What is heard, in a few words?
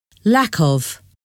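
"lack of", English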